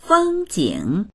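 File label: "feng1jing3", Mandarin Chinese; 风景; fēngjǐng; 風景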